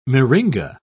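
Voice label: "myringa", British English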